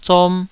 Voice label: "zom2", taiwanese

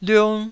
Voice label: "liung1", Taiwanese syllable

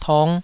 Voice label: "tong5", taiwanese Syllables

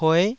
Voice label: "pue9", taiwanese

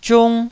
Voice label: "ziung1", taiwanese

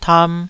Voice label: "tam2", Taiwanese

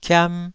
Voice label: "kiam2", taiwanese syllables

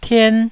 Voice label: "tien1", taiwanese Syllables

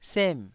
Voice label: "sem4", taiwanese

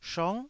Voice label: "shong9", taiwanese

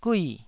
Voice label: "gui4", Taiwanese syllable

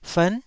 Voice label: "fern9", taiwanese syllables

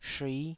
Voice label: "shui5", taiwanese Syllables

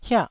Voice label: "hiah4", Taiwanese syllable

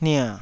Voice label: "nia3", taiwanese syllables

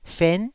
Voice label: "fen9", taiwanese syllables

